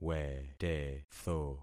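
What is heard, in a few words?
"wetetho", concatenated syllables; Affixing experiment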